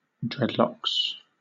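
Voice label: "dreadlocks", British English; pronunciations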